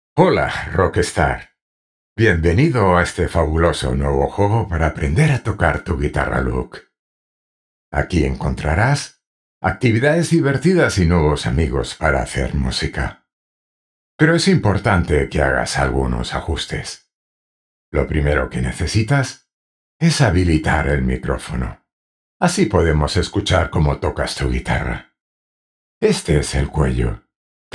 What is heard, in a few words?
spanish e learning